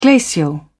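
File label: "glacial", English, British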